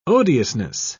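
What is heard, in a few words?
"odiousness", English, British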